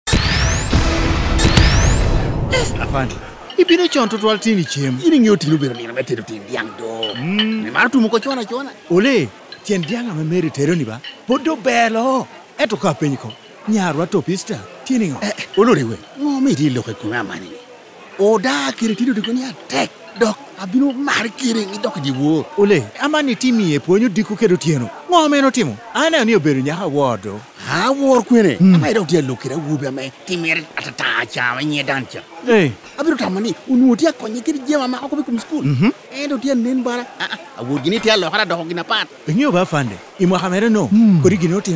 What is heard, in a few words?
radio spots, LANGI; adolescent health campaign radio spots; BOLD; Staying Cool